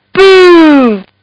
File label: Kid Says Booh; Talking; Voice